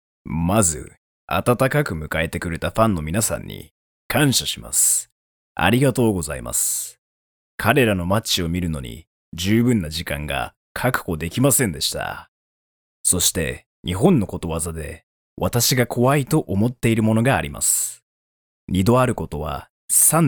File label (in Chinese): 日语男, 宣传片